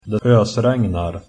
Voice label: rain, swedish